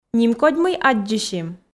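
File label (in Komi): нимкодь мый аддзысим, Komi, female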